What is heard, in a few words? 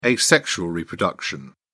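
"asexual reproduction", British English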